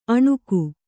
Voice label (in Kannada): "ಅನಕು", Kannada